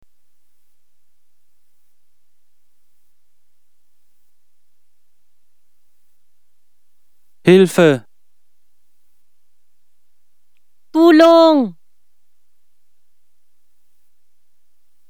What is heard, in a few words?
filipino